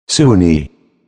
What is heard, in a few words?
soon ae pronunciation